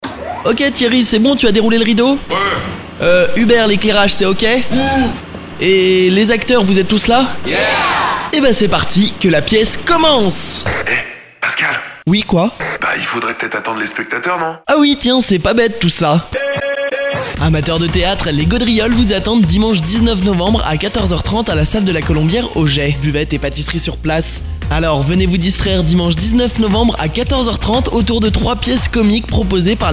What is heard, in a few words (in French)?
spot